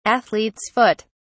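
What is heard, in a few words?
"athlete's foot", English, uk British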